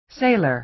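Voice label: "sailor", American English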